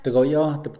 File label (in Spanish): "necesidad", Mixe, hombre; Cognición; INALI